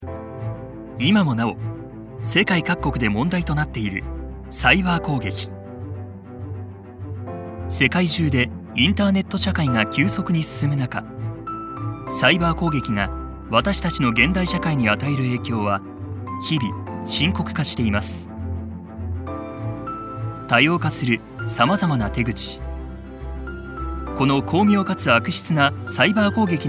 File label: japanese documentaries